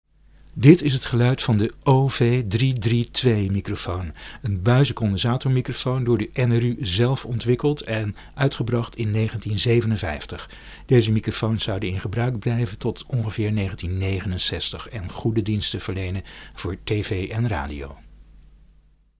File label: OV 332 sound NL